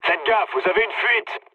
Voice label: damaged water mood low, speech fr; War Thunder

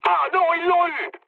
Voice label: aircraft destroyed mood med, speech fr

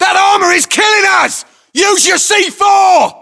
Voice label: voiceovers, US; english

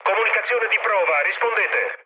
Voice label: chat, speech it; War Thunder

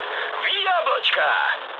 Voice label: bomb success, mood high, speech ru; War Thunder

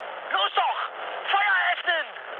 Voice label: attack position, mood high, speech ge; War Thunder

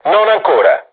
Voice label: art reloading, crew tanks italian; War Thunder

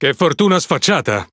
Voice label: Speech Quick Commands Caramba, IT; World Of Warships

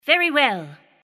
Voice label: Neutral Yes; Civ5

